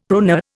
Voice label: "proneur", Indian; Dictionary